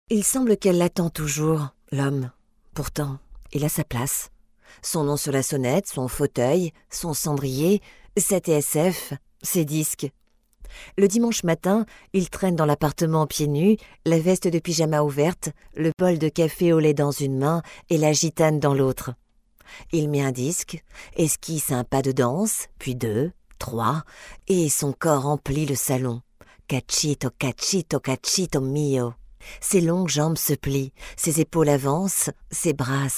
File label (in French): ebook audio book, voix off